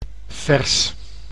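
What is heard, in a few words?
"vers", Dutch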